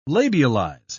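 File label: "labialize", British English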